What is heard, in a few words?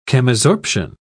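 "chemisorption", British English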